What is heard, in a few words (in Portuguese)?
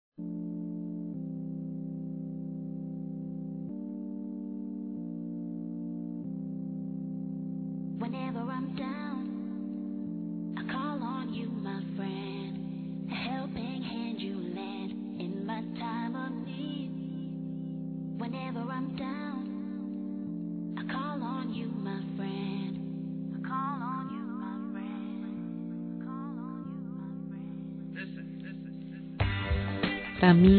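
ROMANTICA SENSUAL, Feminino; Telemensagens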